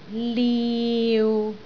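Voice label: "liu6", Cantonese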